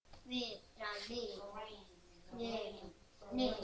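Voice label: "lead", spoken word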